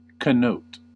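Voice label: "connote", American English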